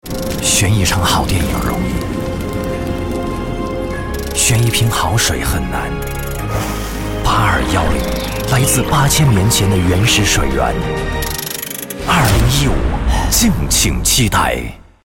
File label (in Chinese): 男 广告, 国语男声配音; 富矿水 林志颖版本